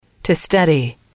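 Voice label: to study, English, female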